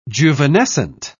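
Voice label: "juvenescent", British English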